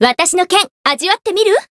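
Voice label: encounter, Japanese; shayne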